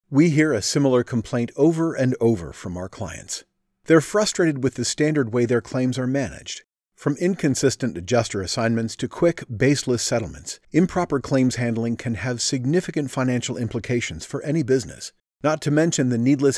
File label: commanding; VoiceBunny; better claims management